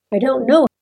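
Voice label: pronunciation "i dont know", English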